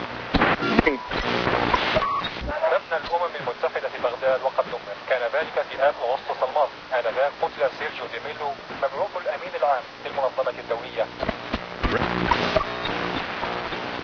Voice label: arabic radio, chatter; Source Engine; cstrike

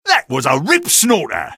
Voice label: sniper taunt rps win; video games; source engine; tf; taunts

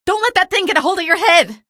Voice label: alert head, female; video games; npc; source engine; episodic; episode 1

